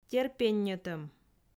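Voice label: "терпенньӧтӧм", Komi, female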